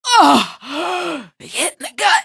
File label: hit in gut, female; npc; Garry's Mod; hl2